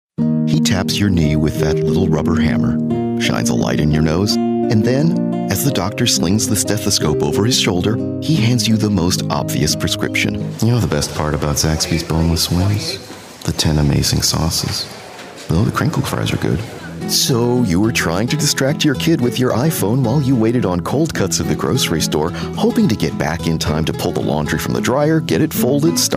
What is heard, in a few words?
Commercial